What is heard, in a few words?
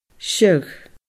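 "seadh", Scottish Gaelic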